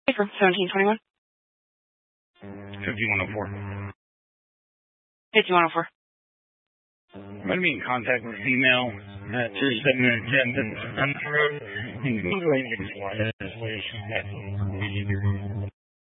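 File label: Sheriff Dispatch, Scanner audio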